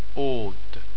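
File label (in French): "hote", French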